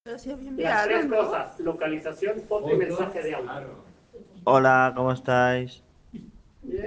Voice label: Voice message